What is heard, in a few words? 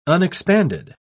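"unexpanded", British English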